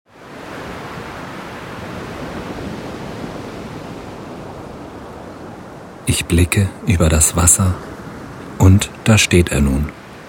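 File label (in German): Hawaii Vollmond männlich, Phantasiereisen; Orte der Entspannung; Tiefenentspannung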